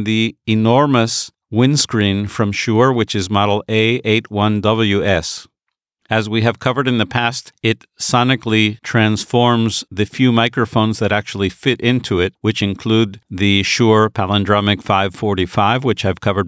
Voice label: Pyle PDMIC78